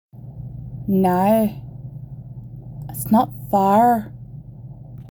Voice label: NI accent